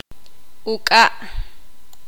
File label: poqomam; cuerno